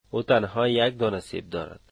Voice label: she only has one apple, dari

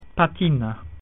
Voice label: "plate", Latin

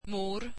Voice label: swedish mother

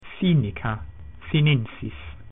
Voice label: "Chinese", Latin

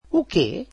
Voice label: portuguese what

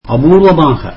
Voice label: tamil rarely

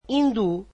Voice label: "Hindi", portuguese

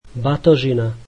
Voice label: slovak luggage

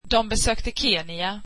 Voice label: they visited Kenya, swedish

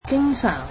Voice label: cantonese always

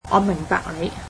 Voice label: cantonese I understand you

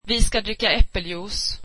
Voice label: we will drink apple juice, swedish

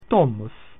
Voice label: "house", Latin

